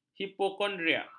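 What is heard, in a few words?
"hipokondriak", Malay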